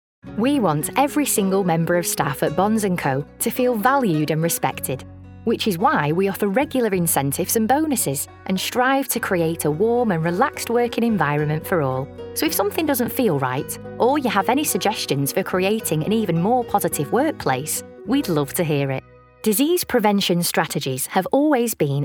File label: Narration; Showreel 2022